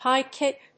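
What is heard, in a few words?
"high kick", English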